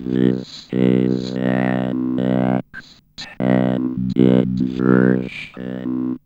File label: ROBO VOX; Masterbits - Tekkno Trax